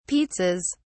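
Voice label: "pizzas", British English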